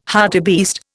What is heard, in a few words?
"hartebeest", Indian